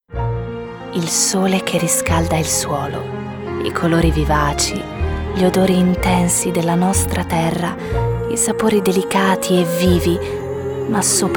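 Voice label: Italian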